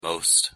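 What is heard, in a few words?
pronunciation "most", English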